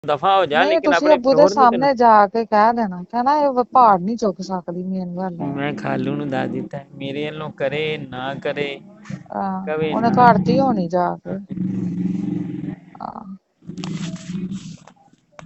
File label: Call recording